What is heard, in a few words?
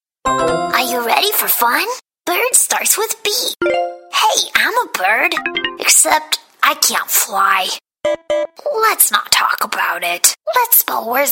women animation; Young, fun, friendly, and sassy. Perky girl next door with a wide range of voices from conversational to character.